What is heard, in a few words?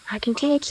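voice acting, es, female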